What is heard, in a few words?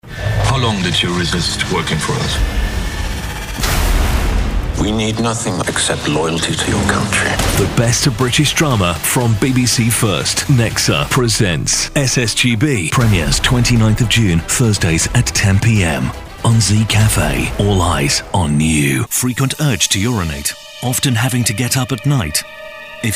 male english tv commercials and promos compilation; Devine Voices